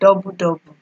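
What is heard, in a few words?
"double double", Nigerian Pidgin, female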